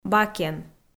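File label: "бакен", Komi, female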